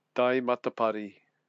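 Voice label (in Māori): "tai matapari", Māori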